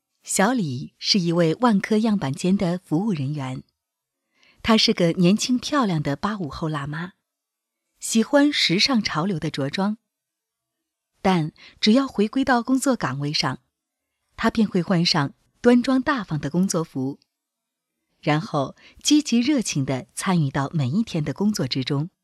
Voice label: 女 专题人物介绍 人物故事

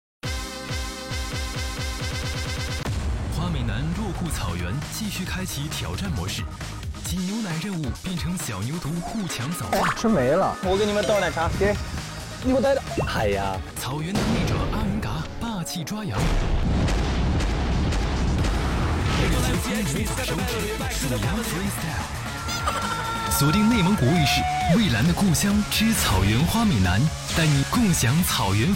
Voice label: 男 栏目导视; 内蒙古卫视; 草原花美男

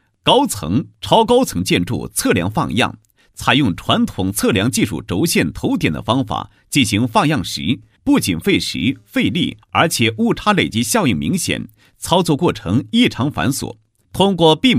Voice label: 男, 科技解说 快速定位功能解说配音; BIM技术协同GPS-RTK快速定位功能解说配音

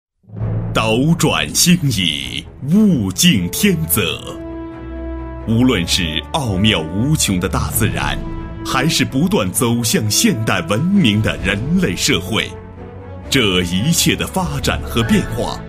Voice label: 男7 企业宣传片, 国语男声配音; 华为集团; 全能型

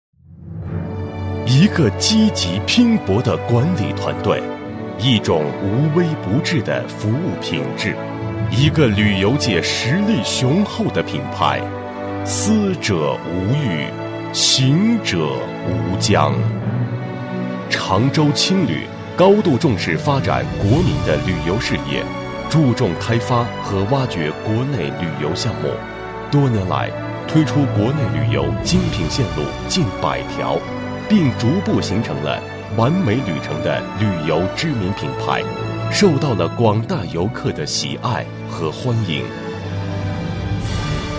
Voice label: 男 抒情大气, 国语男声配音; 年轻磁性; 常州青旅

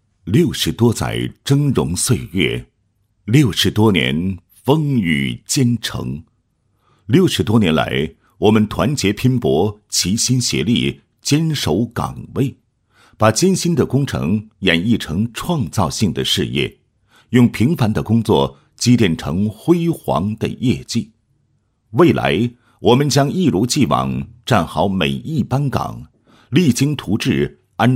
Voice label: 男 项目介绍 配音; 红河综合保税区

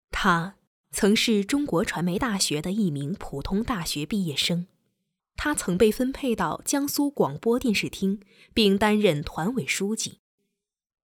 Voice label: 人物宣传片

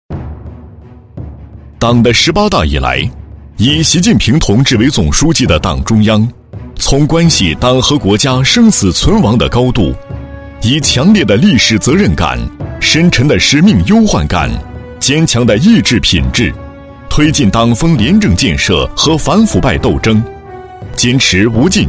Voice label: 男 解说; 着力营造不敢腐的政治氛围

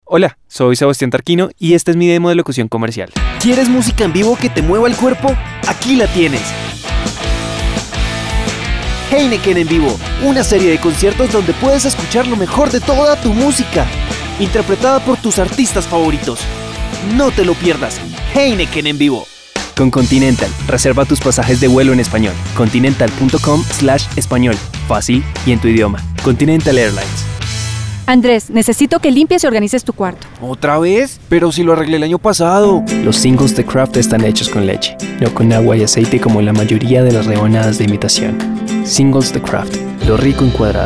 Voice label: 外籍西语男

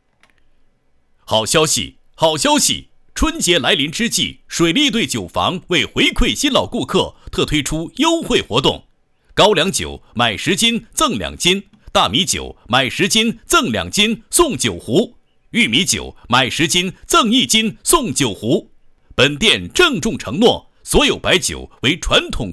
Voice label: 好消息 酒坊春节促销配音

